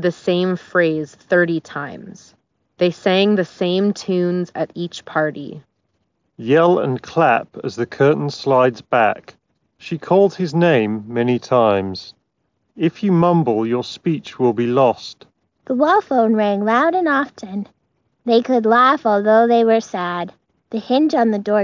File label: Jabra Elite 7 Pro Ideal microphone sample